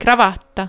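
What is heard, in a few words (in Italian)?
"cravatta", italiano; ktuberling; games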